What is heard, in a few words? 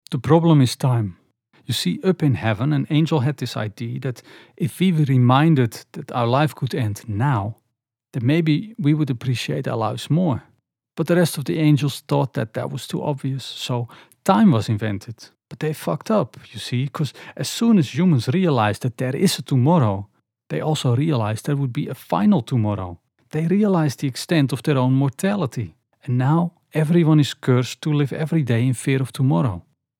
Accent Dutch